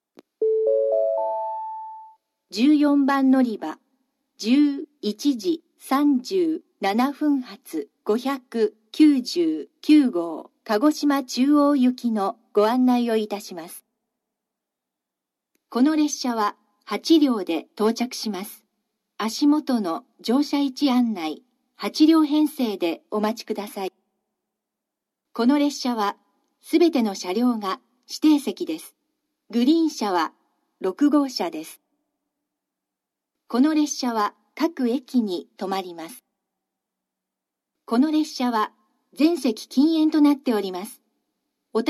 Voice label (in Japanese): のりば案内放送, 新幹線ホーム; 14番のりば案内放送（団体599号 鹿児島中央行き）; ※全車指定席; kumamoto